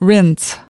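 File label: "rinse", English word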